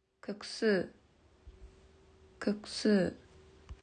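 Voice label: "麺", Japanese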